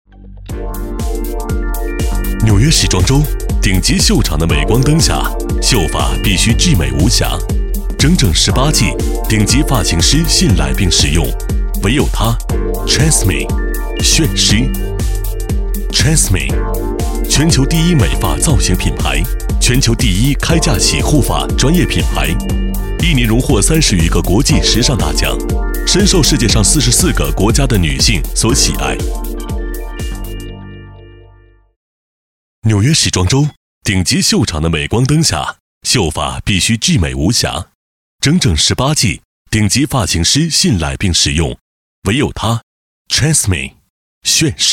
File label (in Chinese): 男60号 高端品牌; 国语男声配音; 交广主播; TRESemmé 炫诗